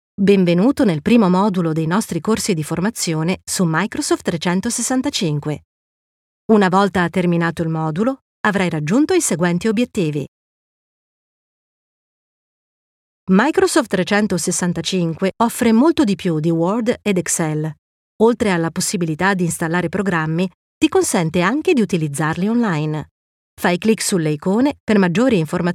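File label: Italian, e-learning; GoLocalise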